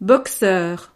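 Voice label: "boxeur", French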